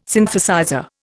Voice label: "Synthesizer", Indian